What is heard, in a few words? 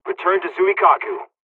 dialogs turkish; War Thunder